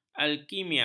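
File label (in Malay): "alkimia", Malay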